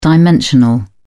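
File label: "dimensional", British English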